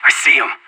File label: radio enemy spotted; Counter-Strike Global Offensive